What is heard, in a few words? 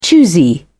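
"choosy", British English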